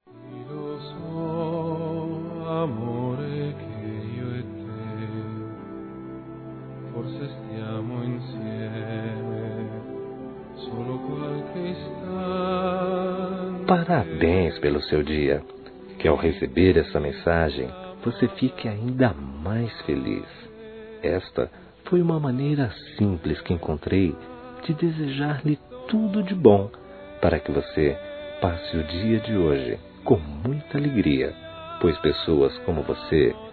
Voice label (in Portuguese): NEUTRO, masc; Aniversários; Aniversários diversos